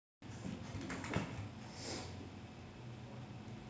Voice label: voice message